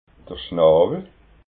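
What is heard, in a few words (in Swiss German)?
"schnawel", Alsatian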